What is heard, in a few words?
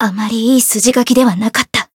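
Voice win barely, Japanese; sophia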